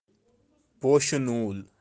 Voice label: "poshi nool", kashmiri; birds of kashmir